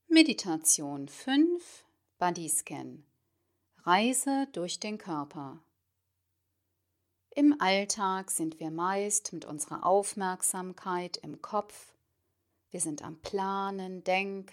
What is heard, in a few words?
Meditation Body Scan